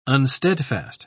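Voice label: "unsteadfast", British English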